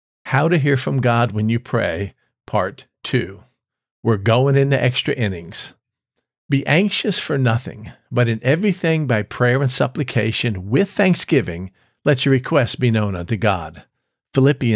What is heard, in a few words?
voiceover